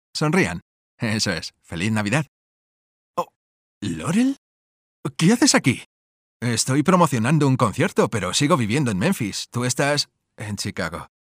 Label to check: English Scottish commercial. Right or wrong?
Wrong